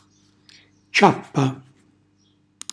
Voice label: "ciappa", Calabrese; suola con chiodi

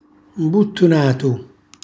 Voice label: "mbuttunatu", Calabrese; abbottonato; cucina calabrese; Glossario

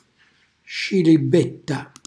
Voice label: "scilibetta", Calabrese; cucina calabrese

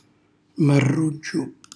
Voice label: "marruggiu", Calabrese; cucina calabrese; Glossario

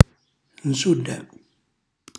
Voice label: "nsulla", Calabrese; cucina calabrese; Glossario

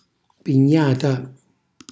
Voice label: "pignata", Calabrese; cucina calabrese; Glossario

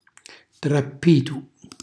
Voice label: "trappitu", Calabrese; frantoio; cucina calabrese

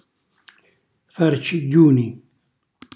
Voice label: "farcigghiuni", Calabrese; grande falce

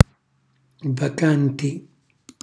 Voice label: "vacanti", Calabrese; vuoto, vacante; cucinacalabrese; glossario

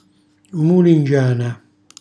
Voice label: "mulingiana", Calabrese; melanzana; cucina calabrese; Glossario

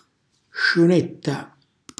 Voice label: "sciunetta", Calabrese; cucina calabrese

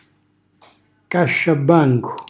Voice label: "casciabancu", Calabrese; grande cassapanca

